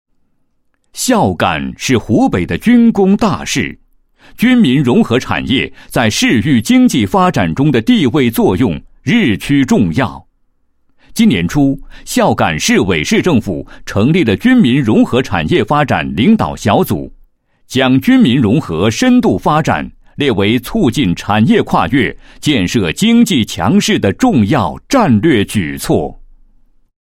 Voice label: 男 工作汇报; 某市军民融合产业发展巡礼

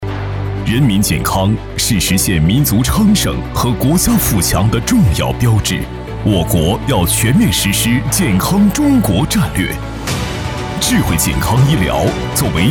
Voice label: 男, 浑厚大气, 智慧健康医疗

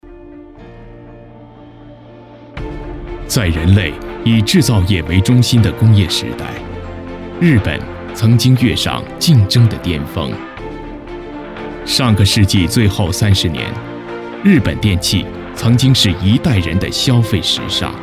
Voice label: 英男 现代科技纪录片

